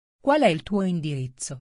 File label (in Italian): domanda informale